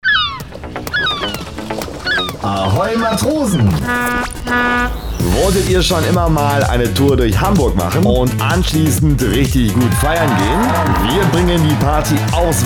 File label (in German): radiospot